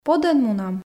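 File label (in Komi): Komi, подӧн мунам, female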